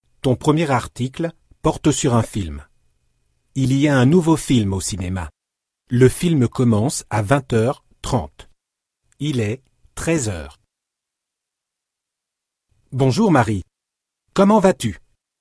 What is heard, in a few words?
fr elearning